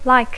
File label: "like", English